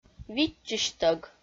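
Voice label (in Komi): "виччысьтӧг", Komi, female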